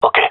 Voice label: affirmation, male; vo